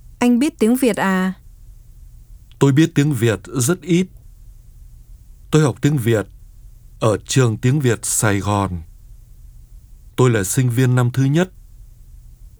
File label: Dialog